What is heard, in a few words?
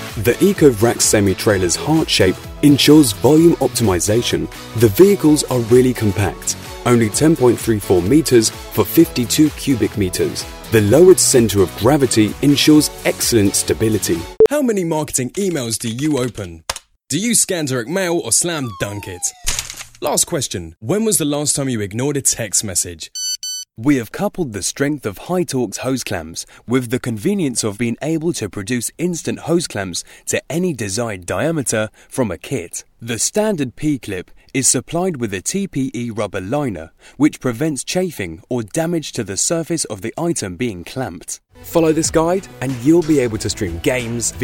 narration